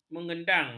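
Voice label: "mengedang", Malay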